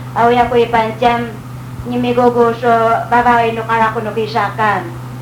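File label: Yami Language, female, 49, Iranmilek; nisomlet do kakawan a lima no kanakan; 卡在礁石洞的小手; The hand that got stuck in the coral reefs; 東清村; 女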